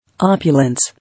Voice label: "Opulence", English

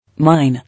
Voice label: "Mine", English

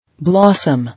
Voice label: "Blossom", English

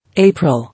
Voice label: "April", English

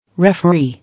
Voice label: "Referee", English

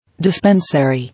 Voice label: "Dispensary", English

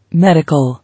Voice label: "Medical", English

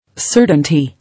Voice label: "Certainty", English

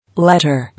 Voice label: "Letter", English

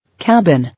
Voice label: "Cabin", English

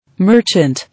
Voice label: "Merchant", English

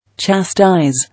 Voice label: "Chastise", English

